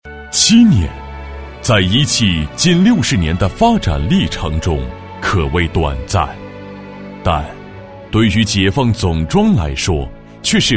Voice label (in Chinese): 大气专题; 总装七年